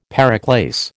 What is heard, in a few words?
"periclase", American